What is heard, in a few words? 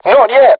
voice message art reloading, crew tanks portuguese